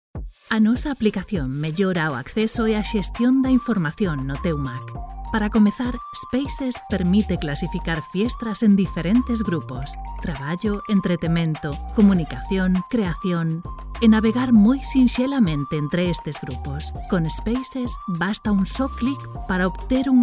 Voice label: Galician modern